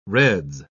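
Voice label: "reds", English, British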